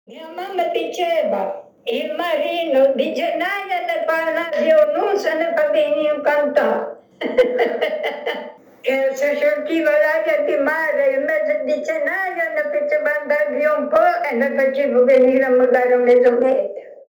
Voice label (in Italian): Dialetto di Capanne di Marcarolo